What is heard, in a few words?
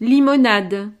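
"limonade", French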